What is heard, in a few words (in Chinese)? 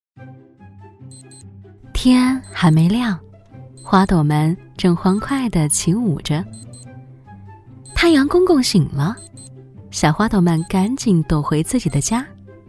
女-绘本故事【儿童剧童话剧】; 蝴蝶